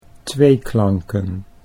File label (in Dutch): "tweeklanken", Dutch